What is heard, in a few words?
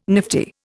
"nifty", Indian